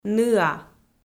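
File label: "ныа", Komi, female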